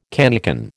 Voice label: "cannikin", American